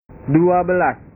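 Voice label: "dua belas", Indonesian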